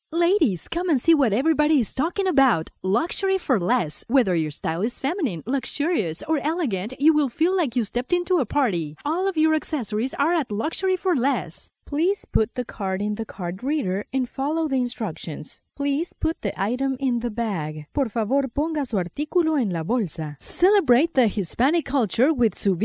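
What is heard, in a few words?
Spanish Latin America female English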